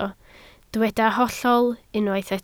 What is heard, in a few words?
"hollol", Welsh